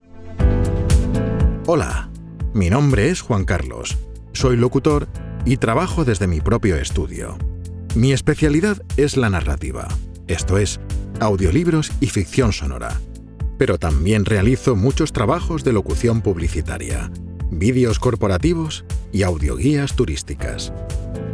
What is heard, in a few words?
spanish commercial; monk